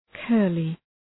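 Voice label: "curly", English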